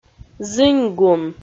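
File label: "зыньгун", Komi-Zyrian, female; audio dict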